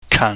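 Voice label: "kan", Cantonese, low falling tone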